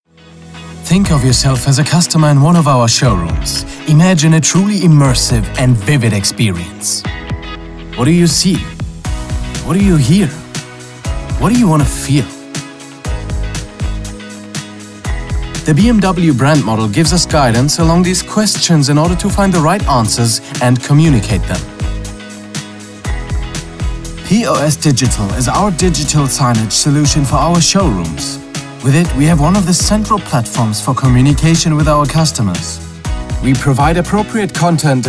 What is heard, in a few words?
eng